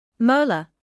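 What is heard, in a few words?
"molar", British English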